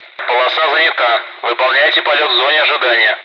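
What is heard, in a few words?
Speech, Russian, air traffic control; ATC; Messages; orbit for spacing; DCS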